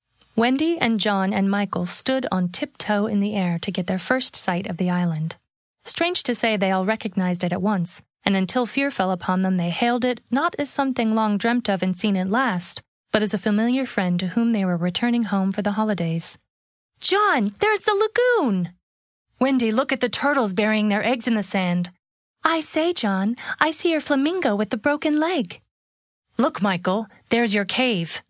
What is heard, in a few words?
Audiobook